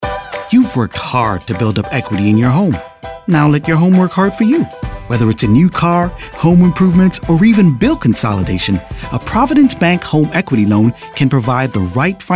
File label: 20s30s Male Commercial; African-American